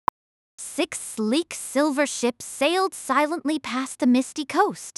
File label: sibilant speech